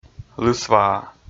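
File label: "лысваа" Komi, male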